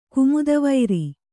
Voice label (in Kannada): "ಗಾಮದೆವರು", Kannada